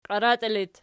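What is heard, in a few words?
"qiaratii" locative, Archi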